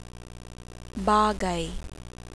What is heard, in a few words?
"bagay", Tagalog, glot; Consonants; Phonology